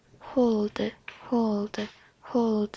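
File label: "hold", spoken word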